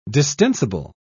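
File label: "distensible", British English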